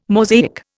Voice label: "Mosaic", Indian